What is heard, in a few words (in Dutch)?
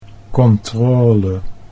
"controle", Dutch